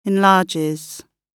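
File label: "enlarges", British English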